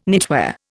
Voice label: "knitwear", Indian